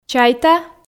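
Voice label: "чайта", Komi, female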